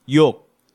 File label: "Yok", Korean